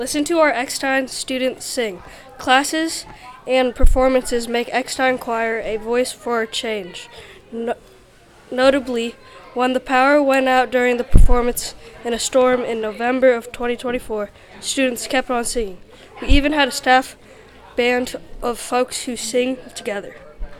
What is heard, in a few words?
Choir Narration